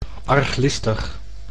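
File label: "arglistig", Dutch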